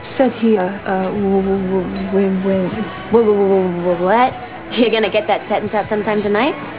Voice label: stutter; buffy